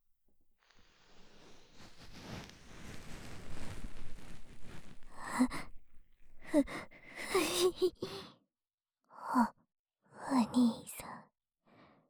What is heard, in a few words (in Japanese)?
【右】こしょこしょ囁きとおしゃべり(始), ASMR